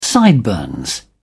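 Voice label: "sideburns", British English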